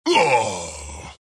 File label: wraith death; dota; skeleton king